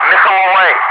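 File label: "missile away", English speech, Wingman; Messages; DCS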